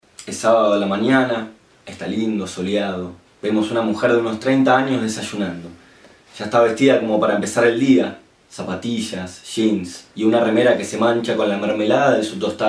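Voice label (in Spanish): Español, Hombres; Casting Impulse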